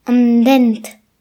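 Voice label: "teeth", Breton; gcompris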